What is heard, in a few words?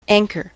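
"anchor", US English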